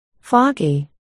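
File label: "foggy", spoken word, articulation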